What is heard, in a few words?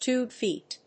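"Tube feet", English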